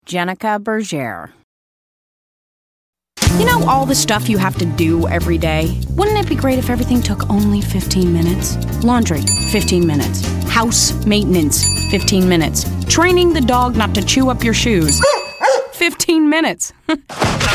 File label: commercial; Innovative LA